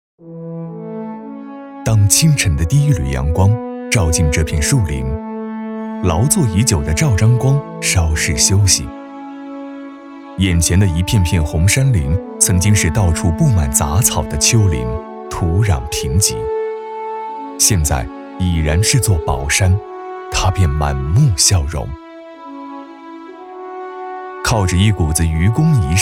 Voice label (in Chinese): 旁白; 怀揣山海情